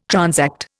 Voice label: "transact", Indian

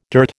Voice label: "dirt", American

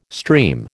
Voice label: "stream", American

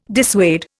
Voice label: "dissuade", Indian

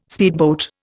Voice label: "speedboat", Indian

